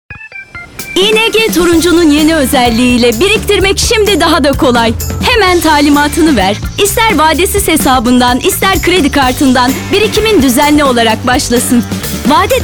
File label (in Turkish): reklam; ingbank